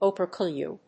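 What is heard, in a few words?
"opercular", English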